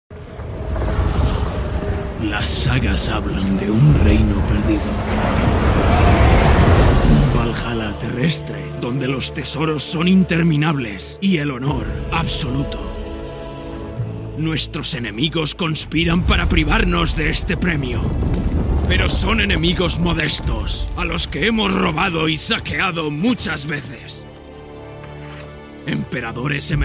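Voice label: European Spanish video game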